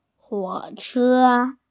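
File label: "huo3che1", Mandarin Chinese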